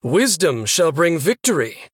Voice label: Male Human Start

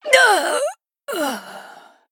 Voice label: Female Human Death

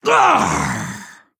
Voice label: Female Quilboar Attack

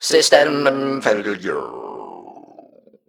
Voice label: Nonbinary Mech Death

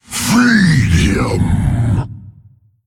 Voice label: Male Faceless Attack

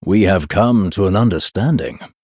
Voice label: Male Blood Elf Well Played

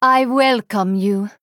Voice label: Female Draenei Greetings

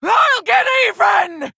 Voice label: Female Fel Orc Attack

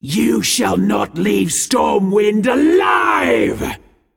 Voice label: Female Demon; Xurgon; Cariel; Mission4 Exchange

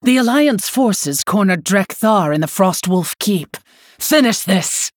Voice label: Female Human; Lady Prestor

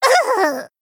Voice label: Female Gnome Death

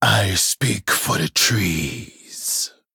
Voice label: Male Troll Play

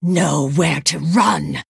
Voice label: Female Night Elf Start; Maiev Shadowsong

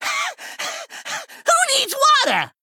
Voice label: Male Goblin Play

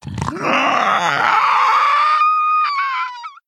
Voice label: Female Quilboar Death

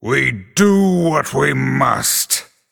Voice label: Male Night Elf; Illidan Stormrage

